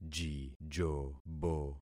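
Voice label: "jijobo", concatenated syllables; Affixing experiment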